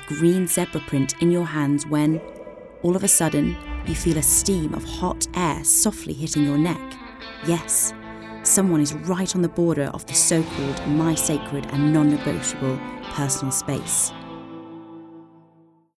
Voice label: female british english outlet comercial